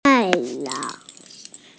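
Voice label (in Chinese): "tai4yang2", Mandarin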